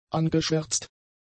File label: "angeschwärzt", German; adjectives